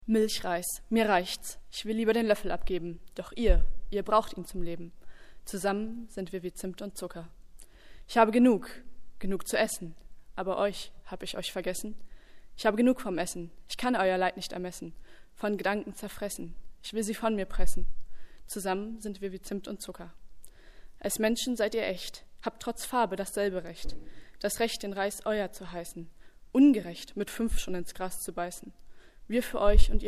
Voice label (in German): Poetry Slam; milchreis